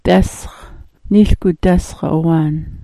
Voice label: "dasx", smalgyax

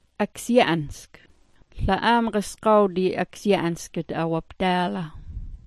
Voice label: "aksya'ansk", smalgyax

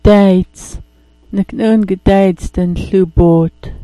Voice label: "dayts", smalgyax

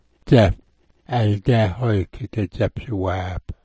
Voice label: "dzap", smalgyax

